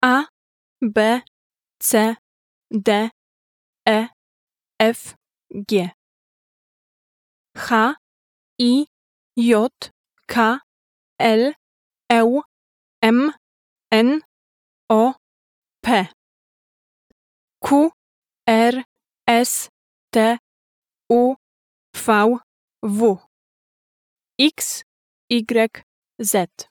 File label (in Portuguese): alfabeto polones; língua polonesa